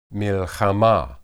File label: battle, hebrew